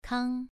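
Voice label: "kang", Mandarin Chinese, second tone, pronunciation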